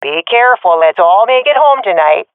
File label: round start, fem; vo; agents; Source Model Packs; Counter Strike Model Pack; CS2